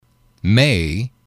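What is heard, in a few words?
"may", English, male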